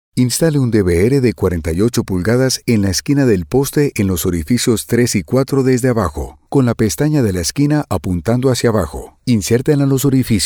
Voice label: Muestras de E-Learning

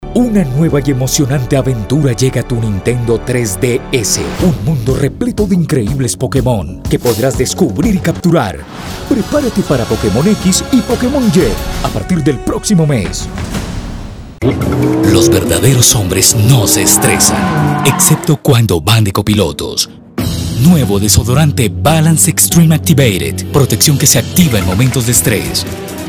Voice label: Comerciales trailer

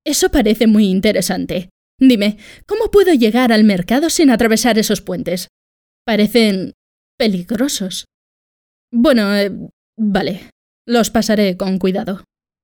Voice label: VIDEOJUEGOS GUERRERA

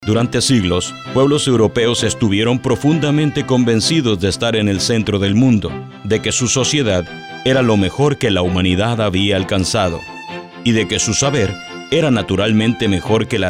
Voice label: Documental

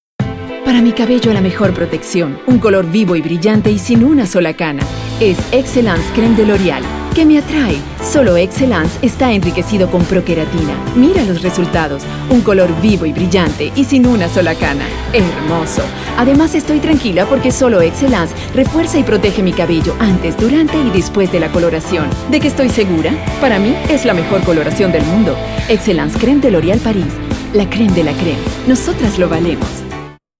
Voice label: Publicidad; Excelance

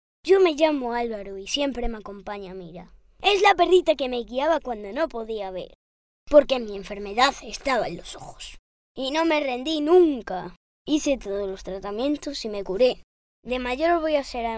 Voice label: Personaje nino 7 a os; Voice Over for Animation and Cartoon Characters

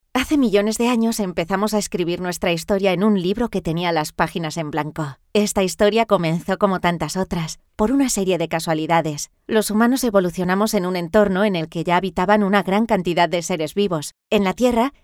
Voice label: narracion

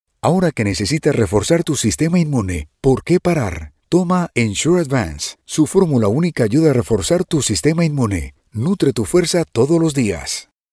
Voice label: NEUTRO; Abott Ensure

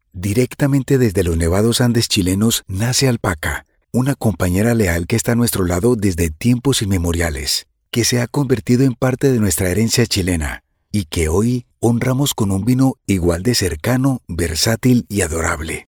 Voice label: Nuevo Alpaca Hombre ESP

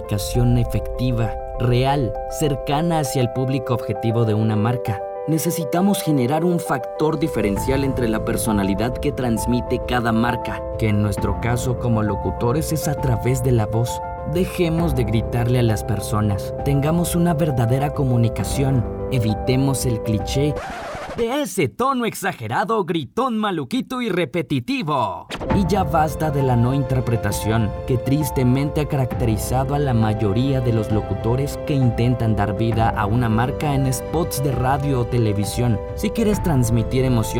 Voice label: Menos Gritos Mejor Comunicacion Voz Comercial

